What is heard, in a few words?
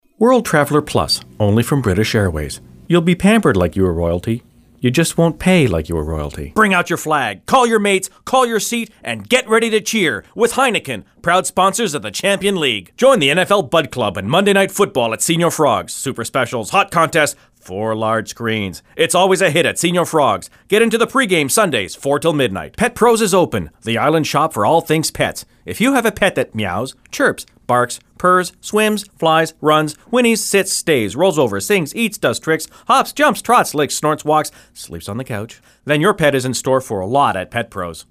straight vo